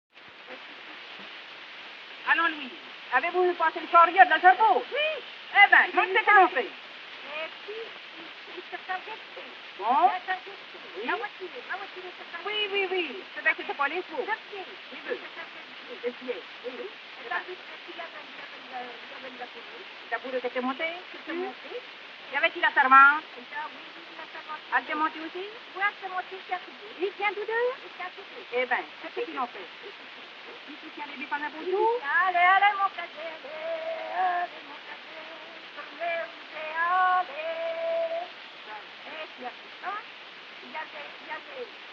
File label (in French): Dialogue et chanson 57 ans garde barriere voix; Ferdinand Brunot collecteur; Le 3 juin 1911